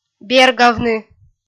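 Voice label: "бергавны", Komi-Zyrian, female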